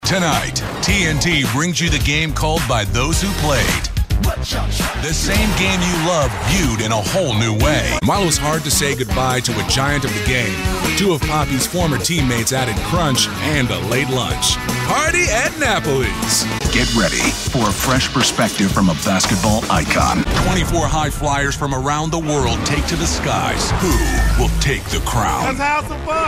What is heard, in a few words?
promo male sports